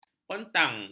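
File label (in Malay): "kontang", Malay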